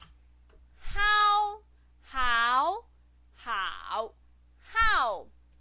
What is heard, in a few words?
"hao", Chinese pinyin